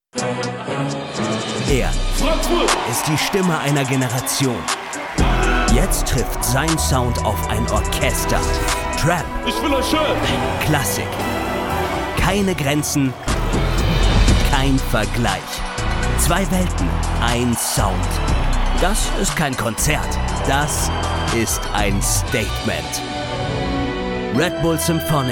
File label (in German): Trailer, maenner; RB Symphonic